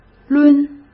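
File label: "抡", Mandarin Chinese; hsk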